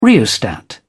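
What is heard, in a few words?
"rheostat", British English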